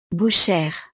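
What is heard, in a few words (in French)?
"bouchere", French; profesiones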